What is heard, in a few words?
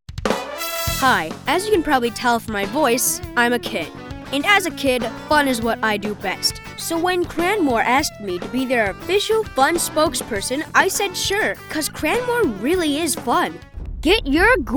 boy